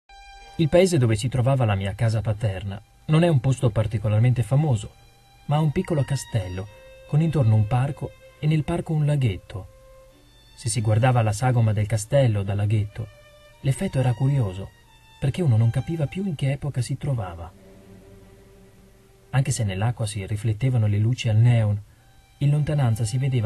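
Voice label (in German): Hoerbuch italienisch, Männer aus aller Welt; Yoshimoto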